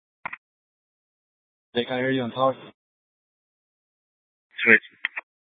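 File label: Police Department Dispatch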